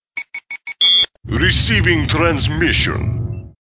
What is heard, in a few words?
male